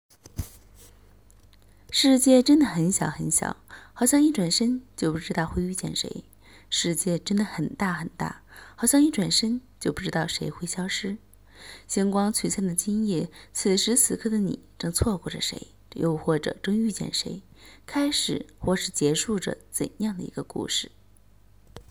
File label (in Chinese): 电台文本阅读